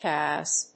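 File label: "cows", English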